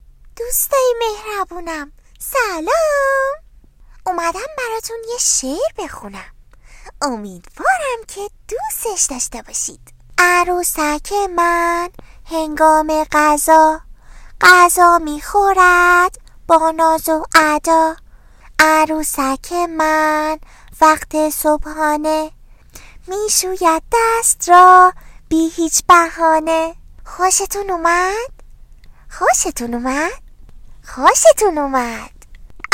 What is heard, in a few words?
tipsazi Girl